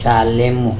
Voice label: "calemo", Andamanese; moray eel